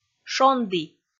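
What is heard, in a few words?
"шонді", Komi, female; kpv audio dict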